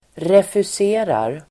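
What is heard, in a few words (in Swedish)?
"refuserar", Swedish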